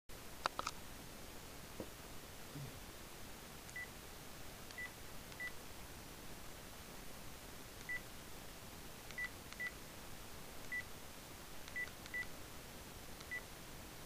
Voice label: telephone talk; Herbert and Co Solicitors